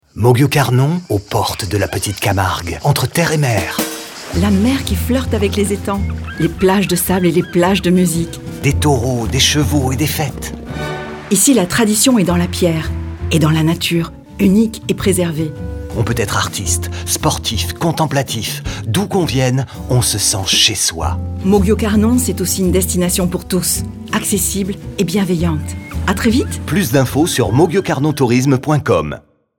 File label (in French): spot radio; mauguio carnon